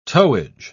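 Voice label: "towage", British English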